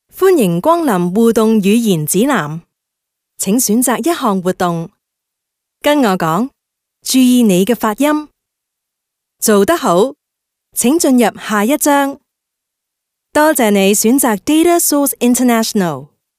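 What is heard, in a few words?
Cantonese female corporate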